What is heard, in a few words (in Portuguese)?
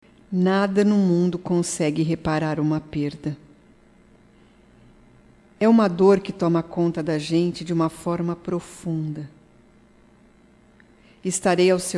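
PÊSAMES, female, Telemensagens, Só Voz; Telemensagens 2017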